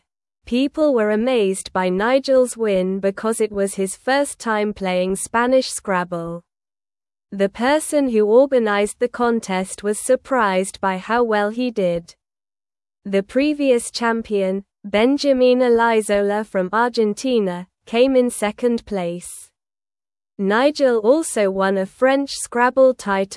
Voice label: English Newsroom Lower Intermediate SLOW Reading